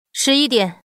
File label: woman lose; longhudazhan